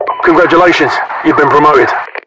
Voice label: promoted, localized english, UK voiceovers; mpvoice